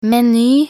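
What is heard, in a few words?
"meny", Norwegian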